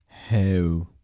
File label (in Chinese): "后", 台城, 男